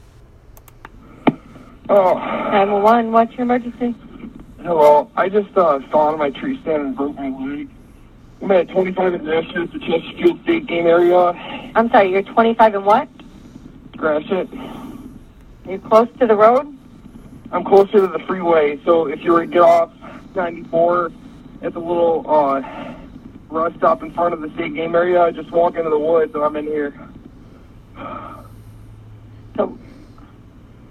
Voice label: 911 Call